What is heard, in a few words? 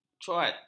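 "cuat", Malay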